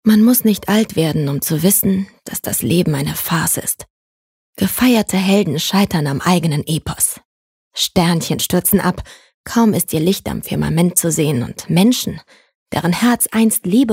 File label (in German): Sprachprobe sanft traurig